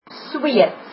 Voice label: Swedish, afrikaans